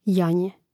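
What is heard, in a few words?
"janje", Croatian; mreznik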